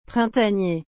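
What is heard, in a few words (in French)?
"printanier", French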